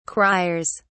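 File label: "criers", British English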